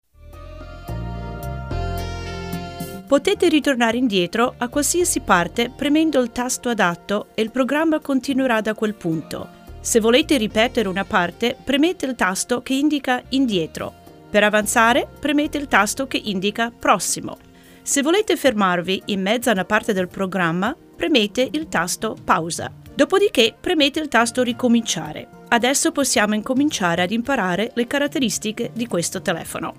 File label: voice italian